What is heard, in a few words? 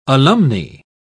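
"alumnae", British English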